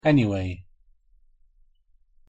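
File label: "anyway", English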